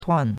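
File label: "tuan4", Mandarin Chinese; Cours-de-Chinois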